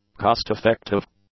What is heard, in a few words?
"cost-effective", English; adjectives